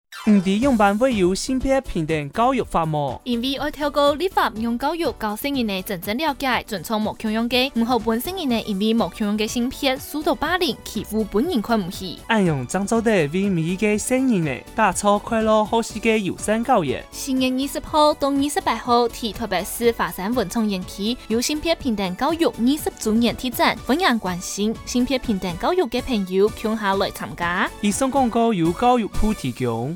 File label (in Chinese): 性別平等教育法20週年 客語; 教育部